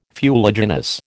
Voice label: "fuliginous", American